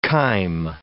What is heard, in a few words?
"chyme", English